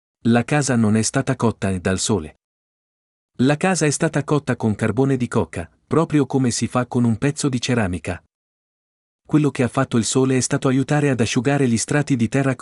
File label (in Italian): Italiano